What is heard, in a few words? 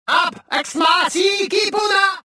cover, rodian